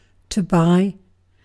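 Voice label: "buy", British English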